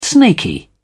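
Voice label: "snaky", British English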